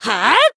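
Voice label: attack, Japanese; ctos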